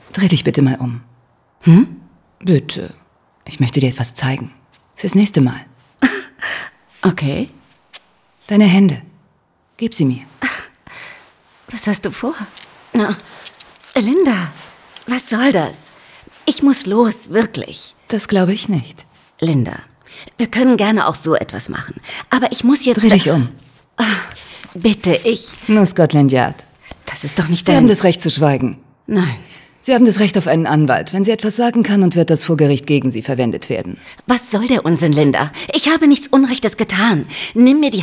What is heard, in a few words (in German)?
Hörspiel; End of Time; Rolle Linda Clark